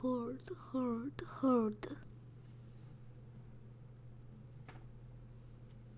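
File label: "hold", spoken word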